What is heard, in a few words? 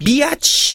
"bitch", expletives